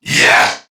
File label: Threatened Crewmen, Battle; Dialog; Barks; Warframe; CorpusCrewmen; CrewmanA